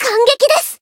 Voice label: healed, jp